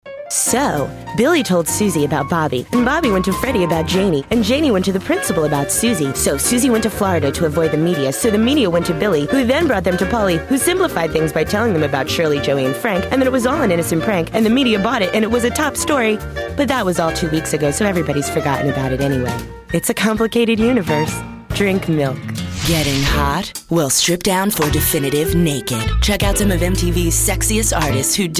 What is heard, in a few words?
Voice Over